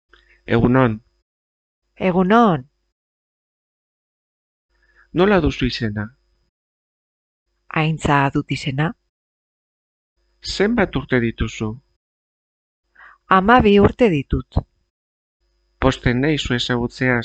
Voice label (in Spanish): Dialogo euskera